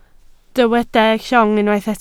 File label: "llong", Welsh